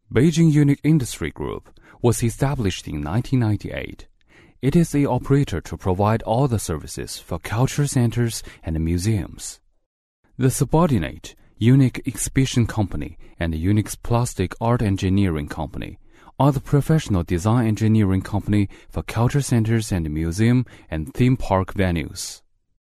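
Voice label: Mandarin English, M, Narration; accent of china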